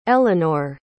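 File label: "elenor", English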